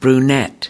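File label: "brunette", US English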